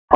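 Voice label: Fire Department HQ Dispatch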